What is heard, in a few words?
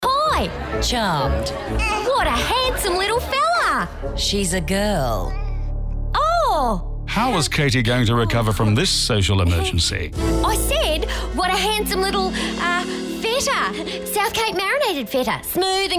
voice acting